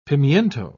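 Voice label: "pimiento", British English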